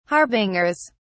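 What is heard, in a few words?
"harbingers", British English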